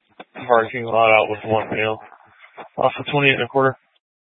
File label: Police Dispatch, scanner; Police 1 Dispatch